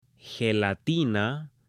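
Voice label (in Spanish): "gelatina" Spanish, female; ALIMENTOS; AppLenguaje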